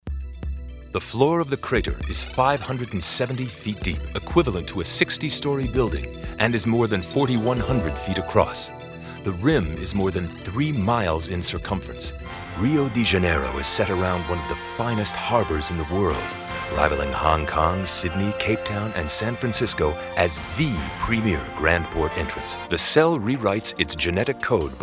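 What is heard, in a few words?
narration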